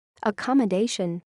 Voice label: "accommodation", English

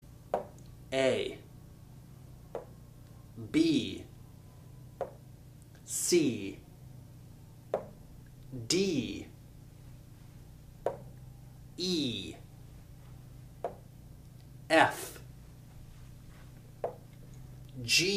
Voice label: alphabet, English

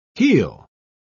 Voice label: "heel", English

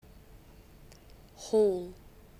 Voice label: "WHOLE", English

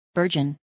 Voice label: "burgeon", English

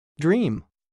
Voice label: "dream" verb

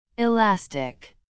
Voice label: "elastic", English

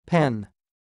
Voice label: "PEN", English

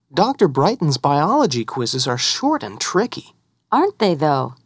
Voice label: dialog

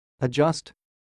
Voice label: "adjust", English

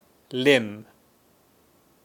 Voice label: "LIMB" in British English

